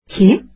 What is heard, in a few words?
who, azeri